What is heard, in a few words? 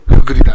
"fuggure", minamiyamagata